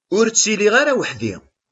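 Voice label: "Ur ttiliɣ ara iman-iw.", Kabyle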